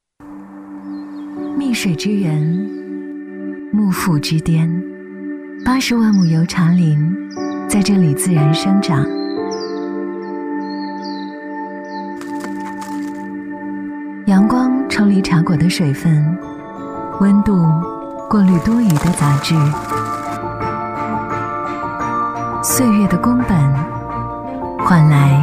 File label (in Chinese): 女 高端广告 优雅甜美 高端; 水之源